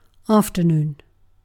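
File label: "afternoon", British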